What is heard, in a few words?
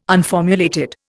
"unformulated", Indian